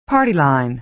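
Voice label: "party line", American English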